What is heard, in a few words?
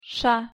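"ш", Russian pronunciation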